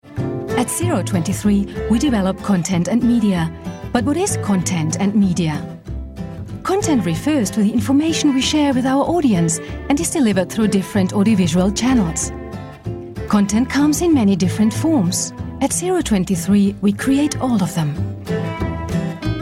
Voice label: female non native english app comercial